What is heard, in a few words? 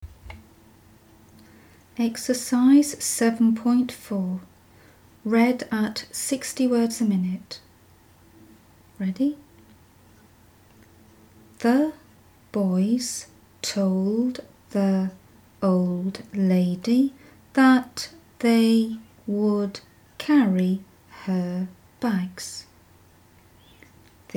60wpm; Teeline